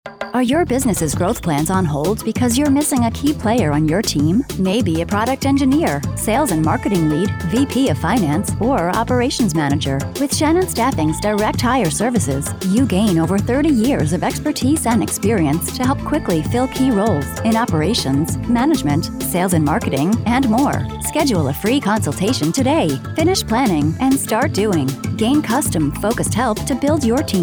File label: Commercial